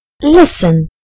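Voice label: "listen", British English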